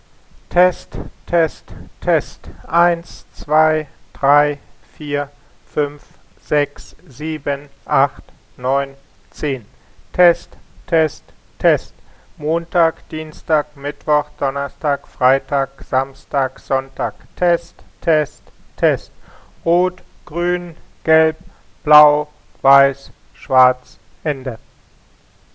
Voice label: Audio test german